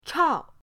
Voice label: "chao4", pinyin